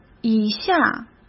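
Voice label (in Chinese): "以下", Mandarin Chinese; hsk; chinese-flashcards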